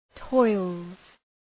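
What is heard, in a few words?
"toils", English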